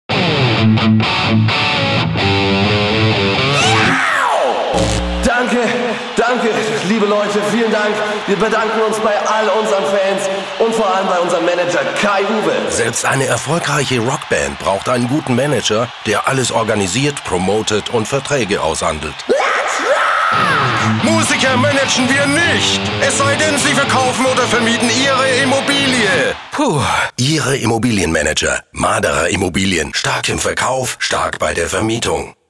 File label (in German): Radio Werbung; Maderer Immobilien